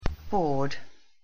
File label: "board", English; the home